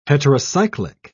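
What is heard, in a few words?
"heterocyclic", English, British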